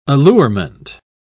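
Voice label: "allurement", British English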